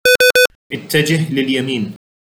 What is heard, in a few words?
Move Right with effects Arabic